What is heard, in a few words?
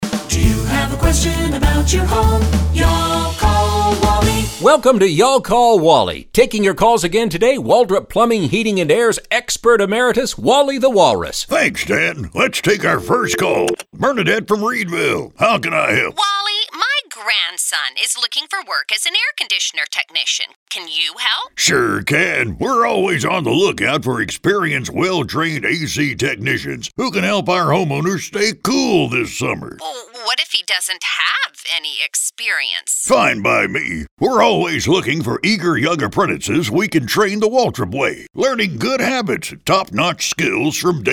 radio ads; On The Lookout